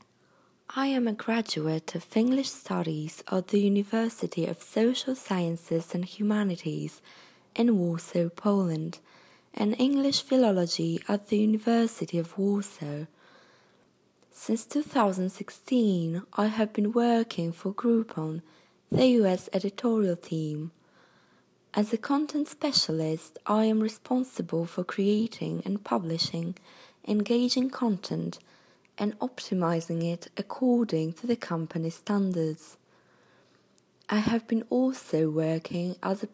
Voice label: Accent